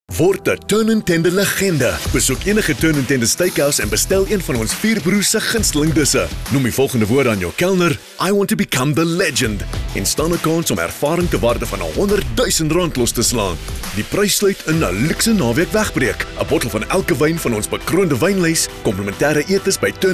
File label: Afrikaans Hardsell Showcase